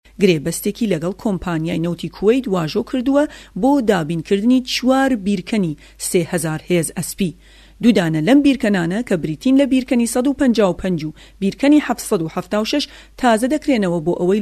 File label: Kurdish, female